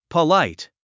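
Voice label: "polite", English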